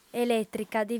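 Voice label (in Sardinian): "elètrica", female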